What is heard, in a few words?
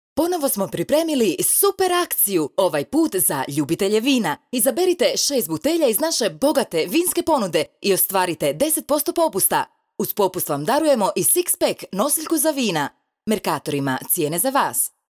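HR General, Croatian; GoLocalise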